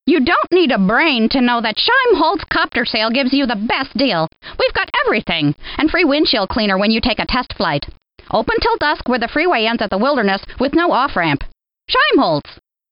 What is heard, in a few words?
Commercial; SimCopter; Scheimhault's Copter Sale